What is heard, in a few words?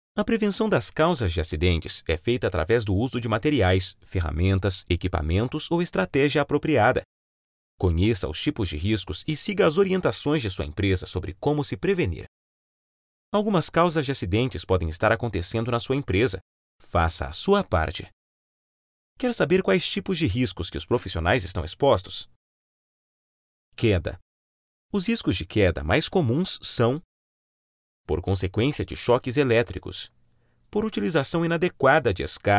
portuguese brazilian e-learning